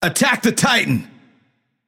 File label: vox attack m; smite; gilgamesh